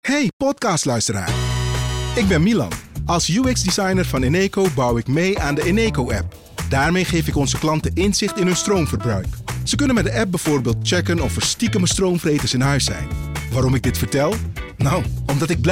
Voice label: Podcast Commercial; Eneco; UXdesigner